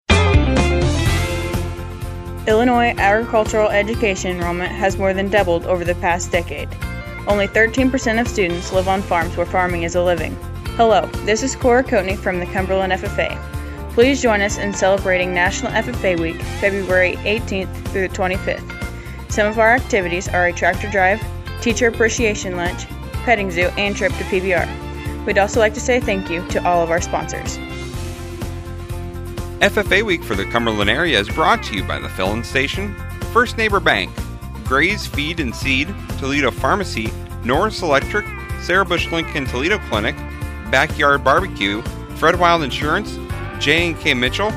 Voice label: female; Cumberland FFA Week